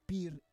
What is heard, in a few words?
"pir", Roon